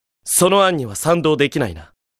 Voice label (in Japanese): エモーション（否定）; その案には賛同できないな。